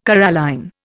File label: "coralline", Indian